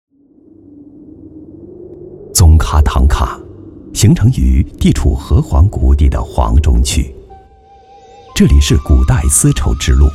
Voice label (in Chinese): 男 纪录片 深沉神秘; 宗喀唐卡